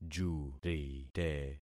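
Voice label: "jurite", concatenated syllables; Affixing experiment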